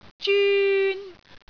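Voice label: "zyun1", Cantonese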